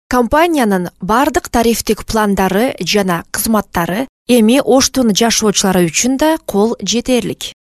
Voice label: Kirgizca Kadin; seslendirme

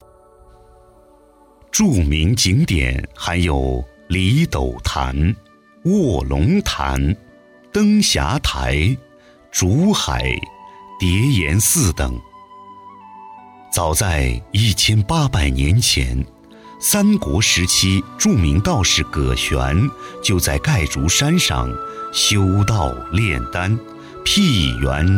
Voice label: Cince Erkek Mandarin Cin Simplified, pekin seslendirme

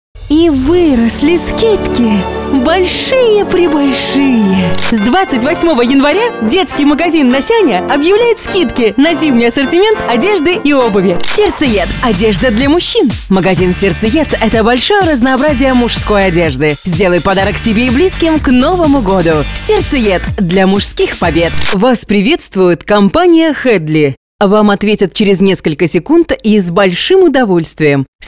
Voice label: Rusca Kadin, rusca seslendirme; kadin sesler

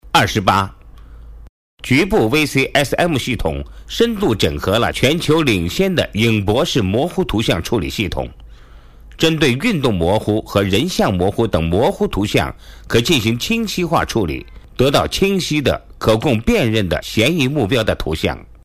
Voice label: Cince Erkek Mandarin Cin Simplified, pekin seslendirme

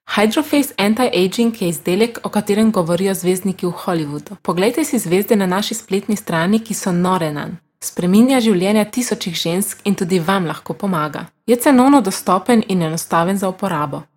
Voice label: Slovence Kadin, slovence seslendirme; kadin sesler

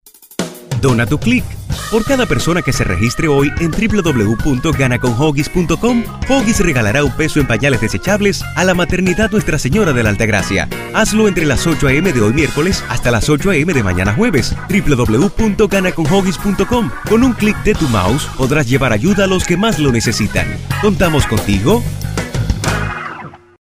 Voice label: Ispanyolca Erkek; seslendirme

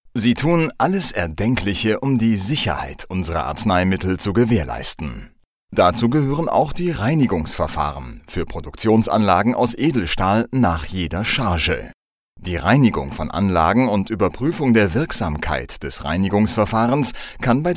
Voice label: Almanca Erkek, seslendirme

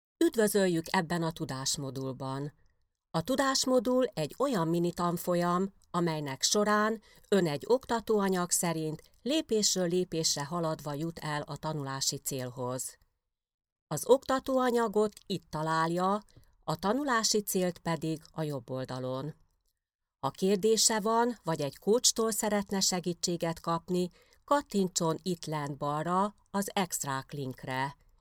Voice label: Macarca Kadin, seslendirme; kadin sesler

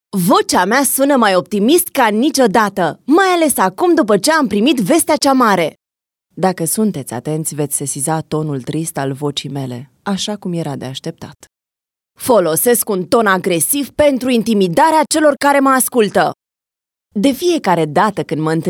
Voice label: Romence Kadin, seslendirme; kadin sesler

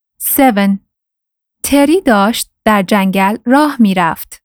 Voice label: Farsca Kadin Iran; seslendirme

